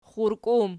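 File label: "xxurki" plural, Archi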